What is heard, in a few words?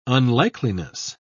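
"unlikeliness", English, British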